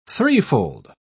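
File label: "threefold", British English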